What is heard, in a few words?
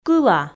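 "кыла", Komi, female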